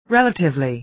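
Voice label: "relatively", American English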